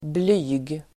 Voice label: "blyg", Swedish